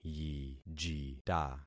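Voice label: "yijita", concatenated syllables; Affixing experiment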